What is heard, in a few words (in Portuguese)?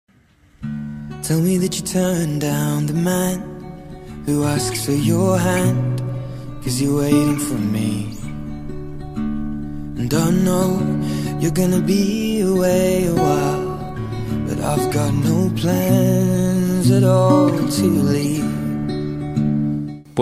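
MENSAGEM DE CONQUISTA male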